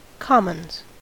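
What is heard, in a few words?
"commons", US English; pronunciations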